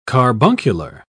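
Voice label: "carbuncular", British English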